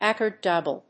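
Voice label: "accordable", English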